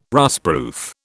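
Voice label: "rustproof", British English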